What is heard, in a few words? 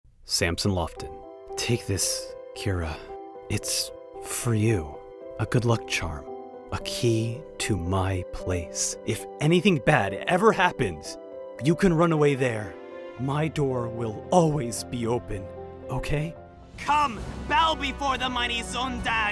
demo animation, Voice Over